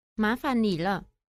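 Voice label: "ma fan ni le", Chinese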